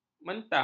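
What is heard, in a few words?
"mentah", Malay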